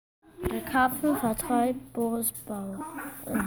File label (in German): sprachmemo karpfen